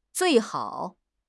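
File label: "zui4 hao3", Mandarin Chinese; HSK4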